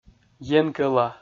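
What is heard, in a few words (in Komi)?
"енкӧла", Komi, male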